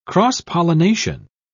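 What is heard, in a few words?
"cross-pollination", British English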